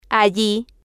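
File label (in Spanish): "alli", spanish, female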